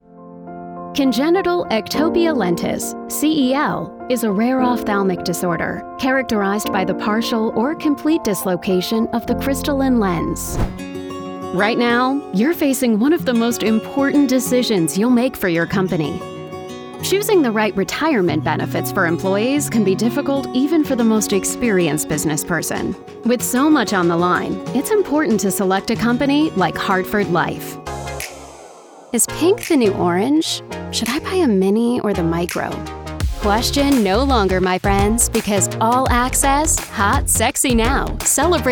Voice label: Narration, Female Narrators - Voice Over For Audio Books; accomplished female voice over narrators